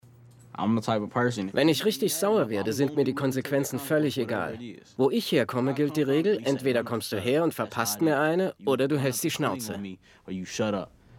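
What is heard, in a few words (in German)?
voice over Jugendkrimineller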